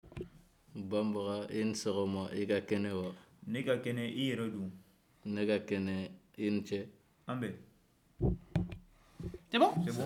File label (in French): Bambara